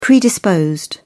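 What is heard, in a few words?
"predisposed", English, British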